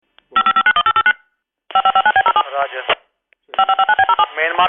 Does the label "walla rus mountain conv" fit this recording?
No